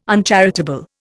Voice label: "uncharitable", Indian